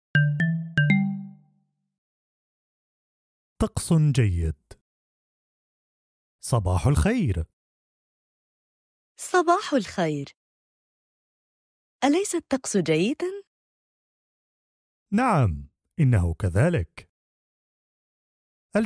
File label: Arabic Small Talk Slow